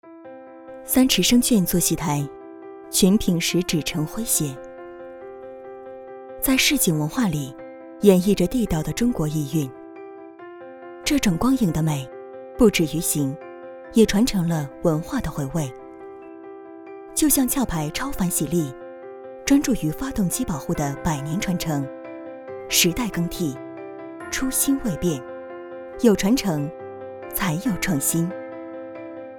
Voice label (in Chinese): 品牌广告; 壳牌超凡喜力